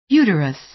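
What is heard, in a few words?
"uterus", American English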